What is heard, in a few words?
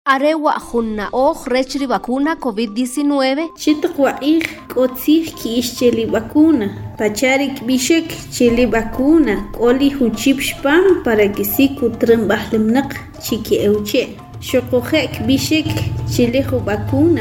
SPOT KICHE